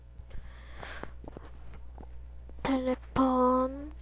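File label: "telephone", spoken word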